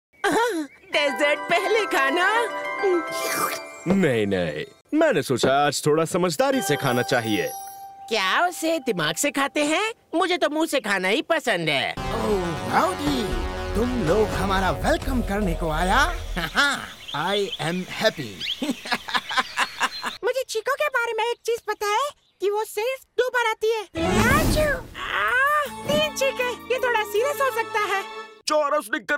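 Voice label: Hindi, Animation; voice demos; GoLocalise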